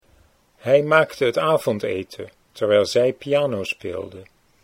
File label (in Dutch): hij maakte avondeten, Dutch